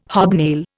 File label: "hobnail", Indian